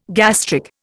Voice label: "gastric", Indian